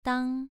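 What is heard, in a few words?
"dang" Mandarin, second tone, pronunciation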